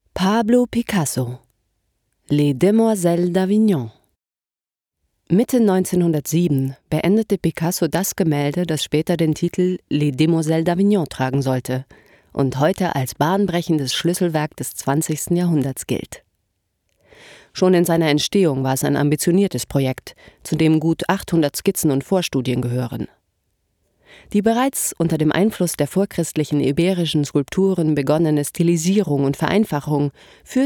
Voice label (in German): deutsch frauen audioguide; sprecher